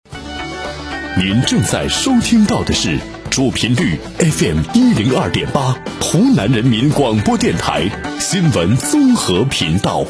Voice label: 男, 片花, 新闻综合频道包装; 湖南新闻综合频道包装

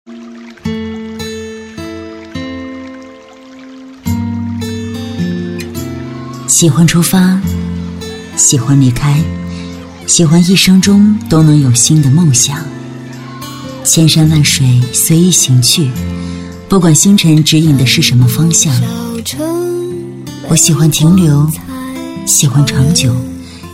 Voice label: 女3-旁白, 女; 喜欢千山万水

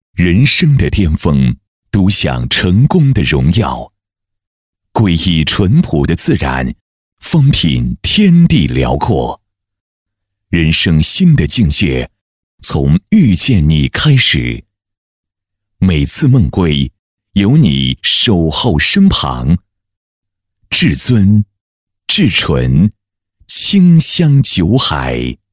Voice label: 男 广告 厚重 干音; 清酒

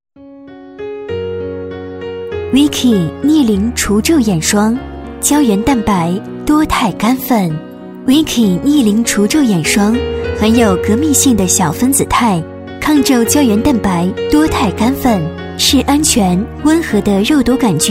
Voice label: 女7-广告-逆龄眼霜（温柔知性）

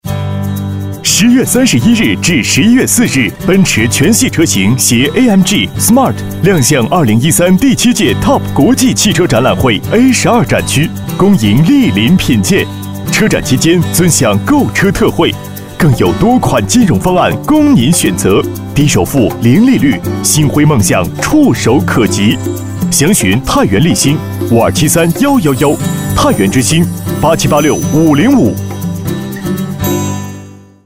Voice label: 男 广告

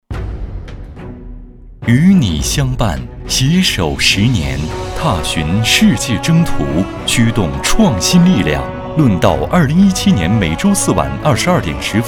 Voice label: 男 片花; 样音; 贵州卫视论道

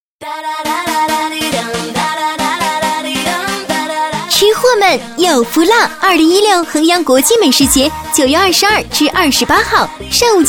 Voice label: 广告 青春激情; 吃货们

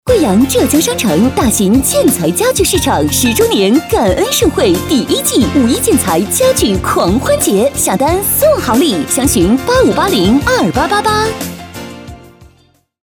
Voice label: 女-广告; 浙江; 浙江商城

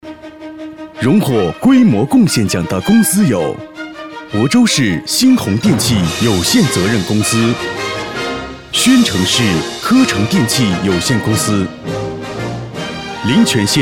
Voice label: 男 专题 大气颁奖

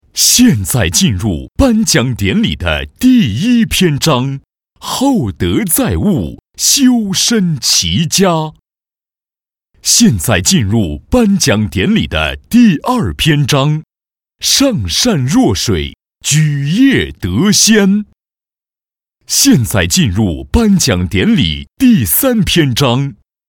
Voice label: 男 颁奖 典礼; 典礼篇章

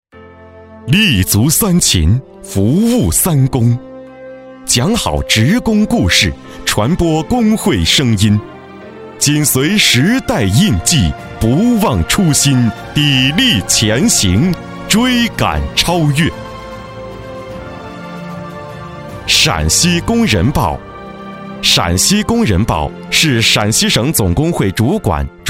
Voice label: 男 专题 年轻磁性; 陕西工人报

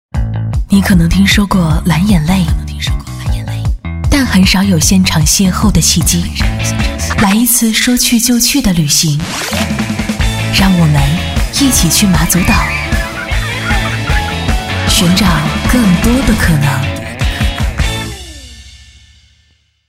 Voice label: 女-广告-大气讲述

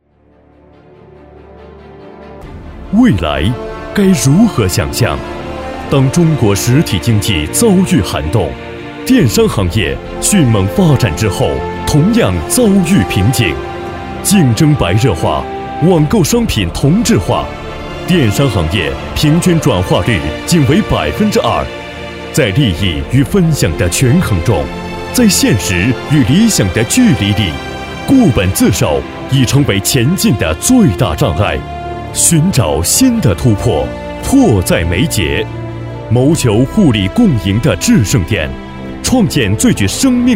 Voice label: 男 专题 宣传片企业大气磅礴

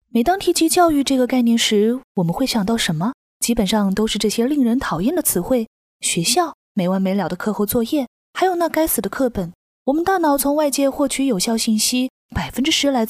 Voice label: 女 专题 俏皮配音

